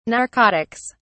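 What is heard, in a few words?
"narcotics", British English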